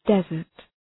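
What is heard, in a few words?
"desert", English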